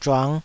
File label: "zhuang5", taiwanese